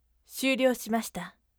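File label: voice syuryo shimashita firm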